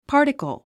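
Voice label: "particle", English word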